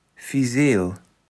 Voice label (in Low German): "Fiseel", Platt